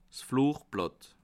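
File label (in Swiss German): "flugblatt", Bas Rhin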